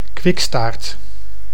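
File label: "kwikstaart", Dutch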